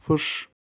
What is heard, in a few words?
"fysh", Ossetian